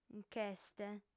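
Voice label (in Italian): "nc'est", lingua sarda, fem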